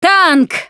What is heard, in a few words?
warn tank, russian, Survivor Voice Producer